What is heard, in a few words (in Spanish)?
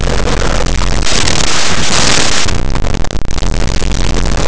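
"cristal", Spanish; laboratori fonetica; paraules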